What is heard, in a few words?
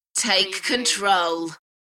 UK female, Take control; beanstalk audio